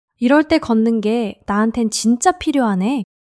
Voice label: joy